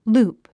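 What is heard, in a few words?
"loop", 英単語 発音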